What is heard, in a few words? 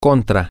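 against, Spanish, male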